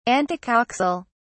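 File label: "antecoxal", British English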